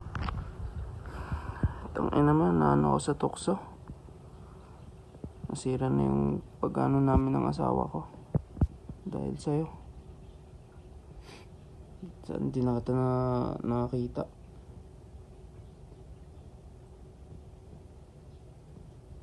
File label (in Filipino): Voice message ni koya na may asawa